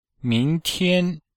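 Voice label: "ming2tian1", Chinese; Cours-de-Chinois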